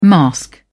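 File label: "mask", British English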